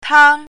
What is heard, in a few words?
"tang1", pinyin